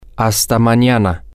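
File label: until tomorrow, spanish, male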